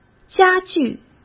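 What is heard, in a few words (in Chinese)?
"加剧", Mandarin Chinese; chinese-flashcards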